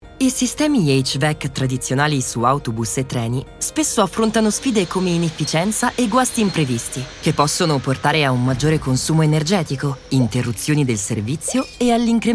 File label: italian explainer videos; Italian voice actors